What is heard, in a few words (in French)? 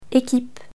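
"équipe", French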